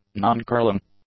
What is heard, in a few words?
"non-curling", english; adjectives